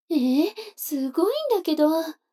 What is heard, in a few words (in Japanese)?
女の子台詞